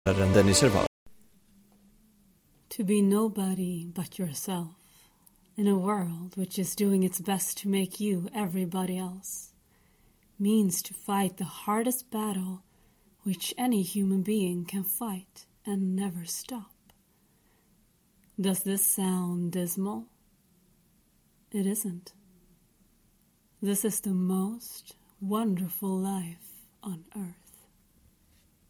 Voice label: English American